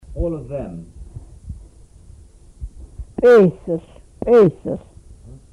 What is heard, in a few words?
all of them, Twana